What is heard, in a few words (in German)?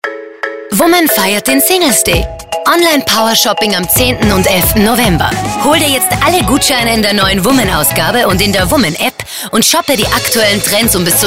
Werbung Woman sexy weiblich edgy